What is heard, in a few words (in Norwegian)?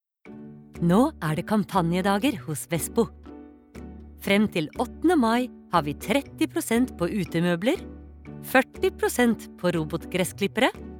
no reklame